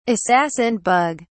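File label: "assassin bug", British English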